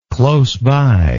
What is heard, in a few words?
"close by", English